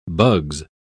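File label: "bugs", British English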